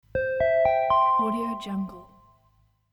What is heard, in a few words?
airport announcement